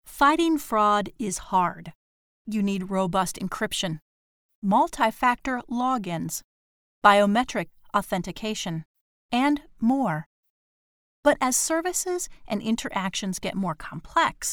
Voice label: english female

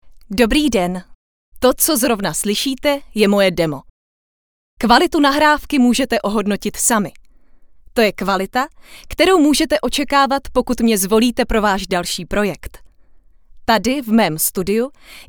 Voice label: czech female

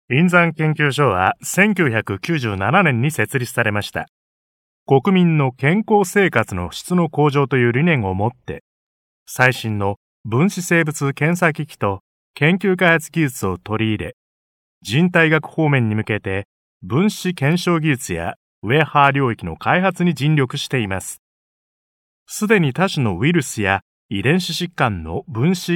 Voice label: japanese male

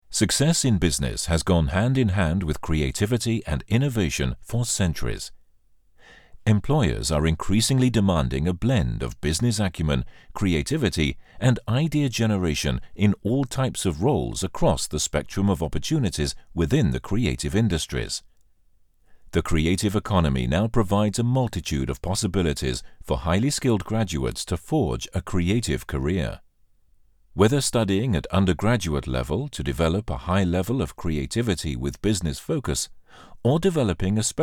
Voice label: english UK male

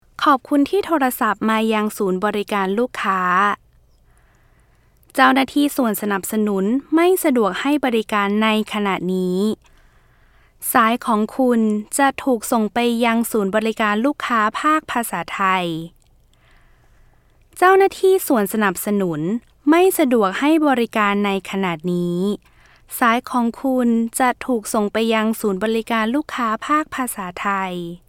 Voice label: thai female

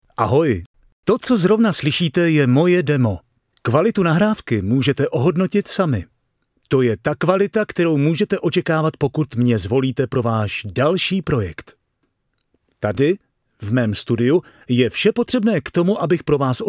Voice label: czech male

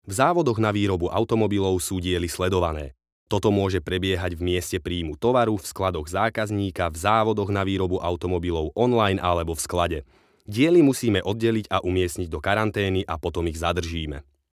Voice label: slovak male

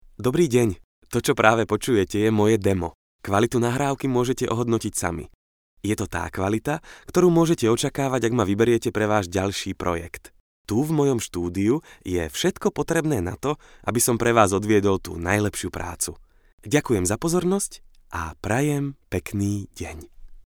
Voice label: slovak male

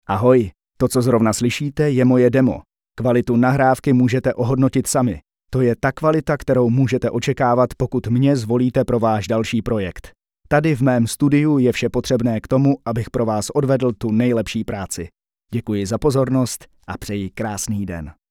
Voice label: czech male

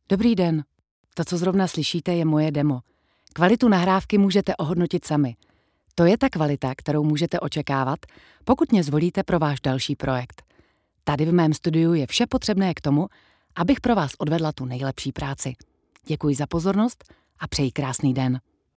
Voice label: czech female

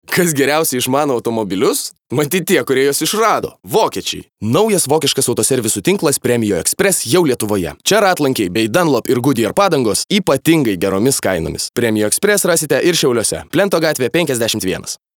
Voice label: lithuanian male

